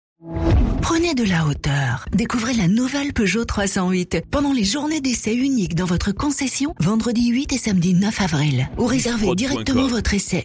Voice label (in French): Spot, publicite; Peugeot; 308